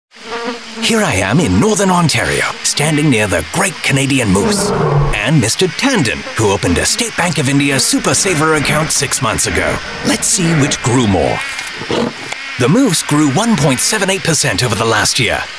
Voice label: BRITISH accent voiceover; Attenborough